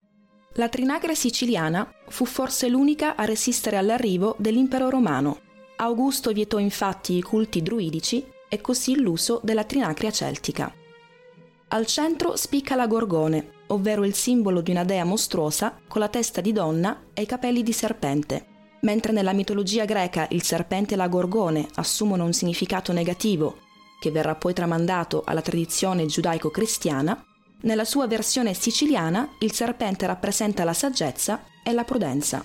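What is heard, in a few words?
Italian General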